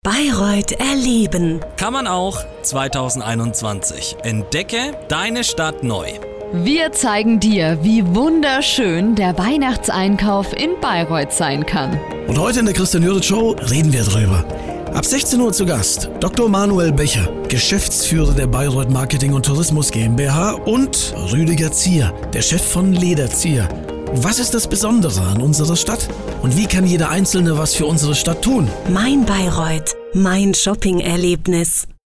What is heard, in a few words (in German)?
Promospot Talk; Mainwelle; Bayreuth; Bayreuther Weihnachtsfilm